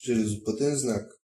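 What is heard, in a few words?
train sign description, Bulgarian; vehicles; locomotive; cartoon; stamps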